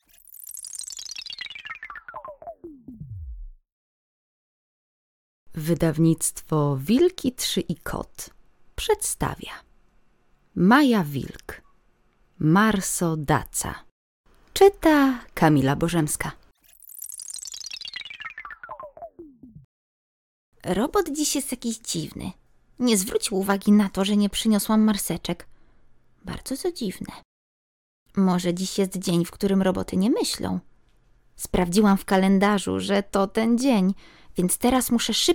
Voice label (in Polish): audiobook darmowy fragment